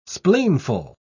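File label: "spleenful", British English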